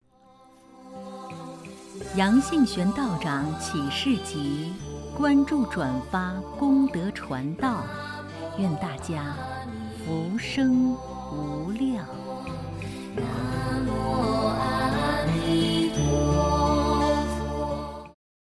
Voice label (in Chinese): 广告